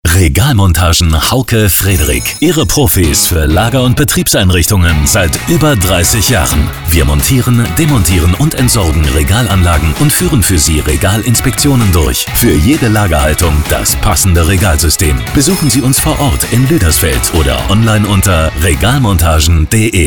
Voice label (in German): radio spot; regalmontagen